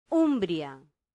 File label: "umbria", Latin; santillana